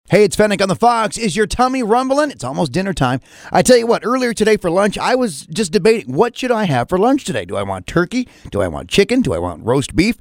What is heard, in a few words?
DJ ENDORSEMENT; ARBYS; WRSR